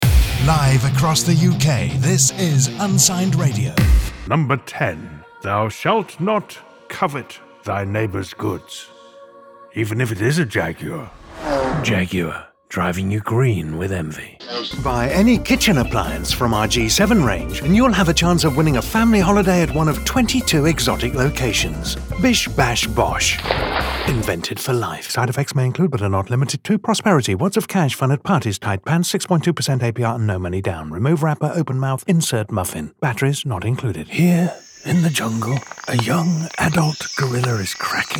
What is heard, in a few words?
Commercial Reel; EnigmaVoices